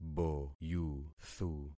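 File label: "boyuthu", concatenated syllables; Affixing experiment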